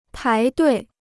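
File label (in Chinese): "排队" Chinese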